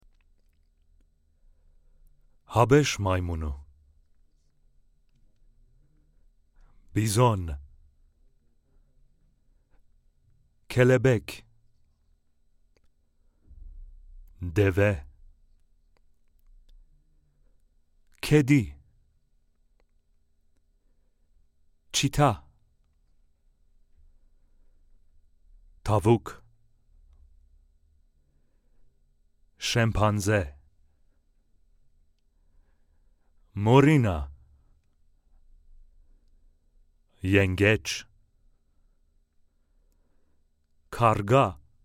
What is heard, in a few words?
turkish commercial